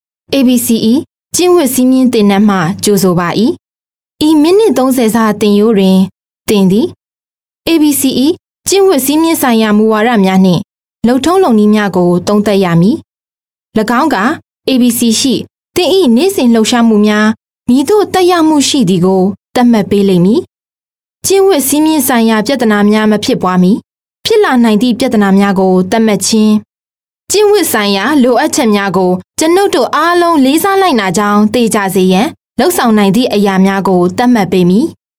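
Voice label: f Burmese E-Learning, female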